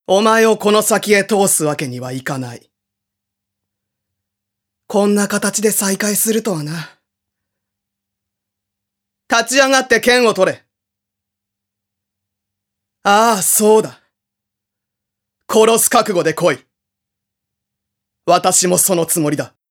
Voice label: female voice